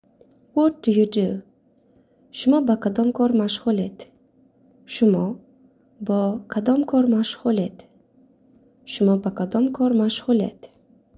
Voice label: What do you do, tajik